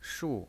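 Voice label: "shu", Mandarin Chinese pinyin, fourth tone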